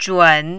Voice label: "zhun3", mandarin